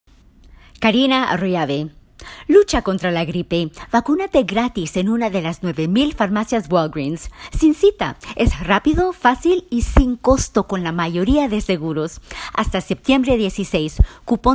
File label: Spanish; Commercial Demo; Voiceover